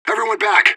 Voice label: radio bot fallback; fbihrt